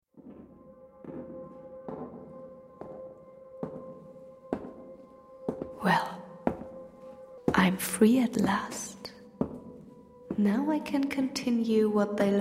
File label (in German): Interaktives Gruselhörspiel englisch